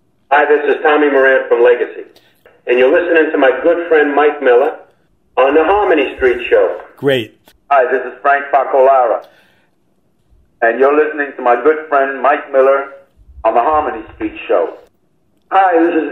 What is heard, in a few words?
promos